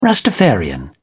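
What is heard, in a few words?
"rastafarian", British English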